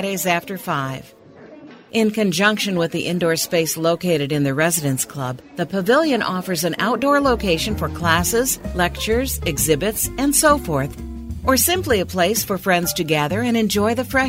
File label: explainer willow glen